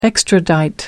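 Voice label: "extradite", English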